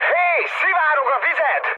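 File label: Hungarian damaged water mood high, speech; War Thunder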